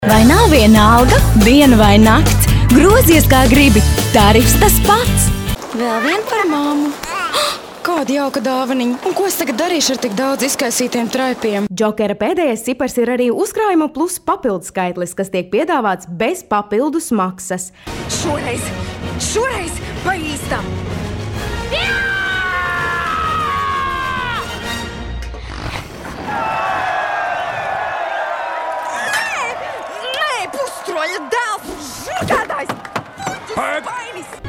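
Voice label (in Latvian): Advertisement women Latvian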